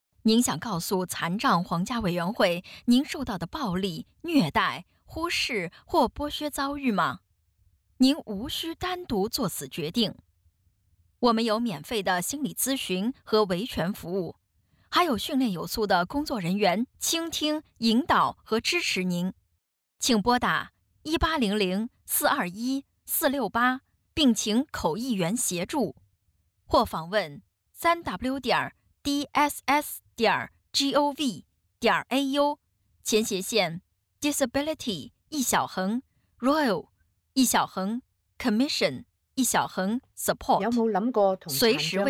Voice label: mandarin; dss disability